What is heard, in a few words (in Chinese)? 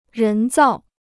"人造", Chinese